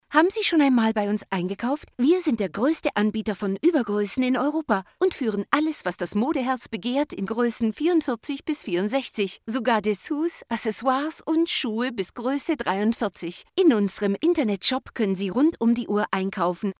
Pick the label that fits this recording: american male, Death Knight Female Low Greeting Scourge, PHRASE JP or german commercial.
german commercial